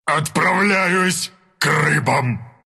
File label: death, ru; dota2